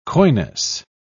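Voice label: "coyness", British English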